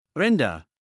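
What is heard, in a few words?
"renda", Malay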